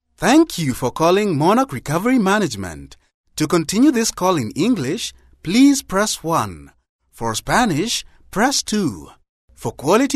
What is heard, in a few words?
male african voice over upbeat, african english